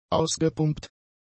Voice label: "ausgepumpt", German; adjectives